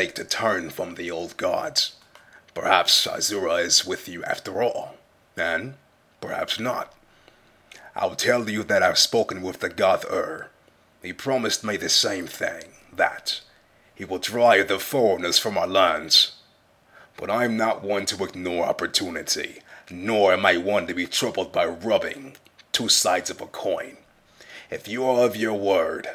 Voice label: dunmer take, dark elf voice auditions